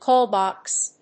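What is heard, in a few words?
"call box", English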